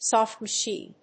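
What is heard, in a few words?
"Soft Machine", English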